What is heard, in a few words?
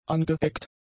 "angeeckt", German; adjectives